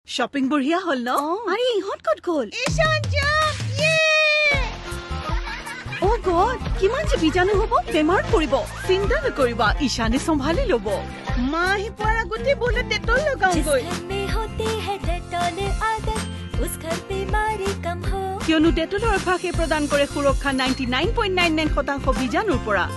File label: assamese TVC